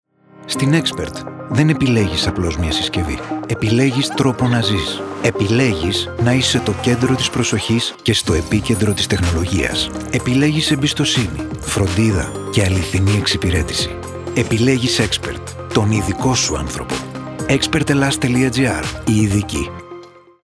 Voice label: Motivational